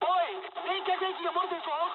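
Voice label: damaged water mood high, speech japanese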